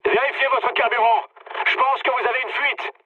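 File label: French tank damaged mood low; War Thunder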